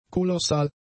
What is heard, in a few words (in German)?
"kolossal", German; adjectives